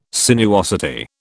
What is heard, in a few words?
"sinuosity", British